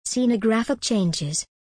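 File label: "scenographic changes", English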